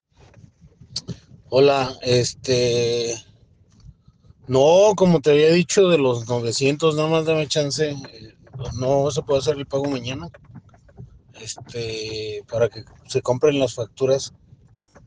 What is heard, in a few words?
whatsapp ptt